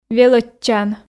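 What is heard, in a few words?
"велӧдчан", Komi, female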